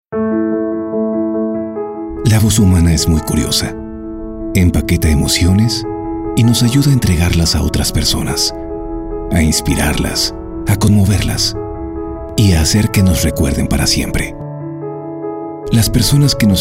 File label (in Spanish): locutor mexicano; mexico